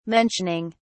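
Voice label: "mentioning", British English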